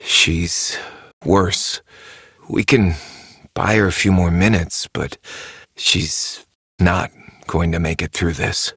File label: Operator Voice; JadeShadowsQuest; Mission Four